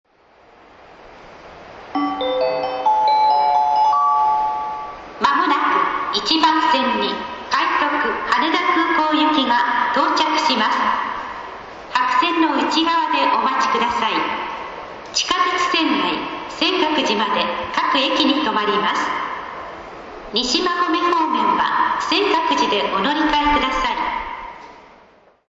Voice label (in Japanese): 快特 羽田空港行き接近放送 新橋駅; 電車走行音